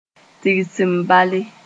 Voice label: "tigs zem-bala", Moore; to stock